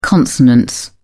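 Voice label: "consonance", English, British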